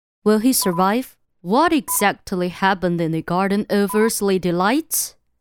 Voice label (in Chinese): 女,游戏角色,御姐音